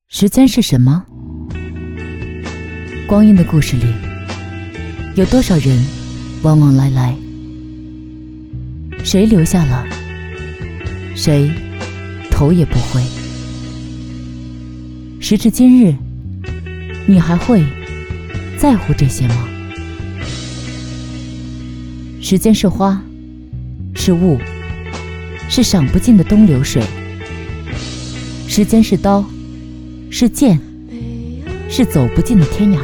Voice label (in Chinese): 女 独白; 时间